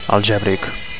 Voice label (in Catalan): "algebric", Catalan; algebraic